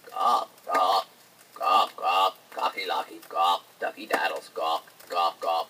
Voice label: gawk gawk gawk gawk gawky locky lenny penny gawk gawk, gibbrish; Voice